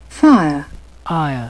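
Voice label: "fire", British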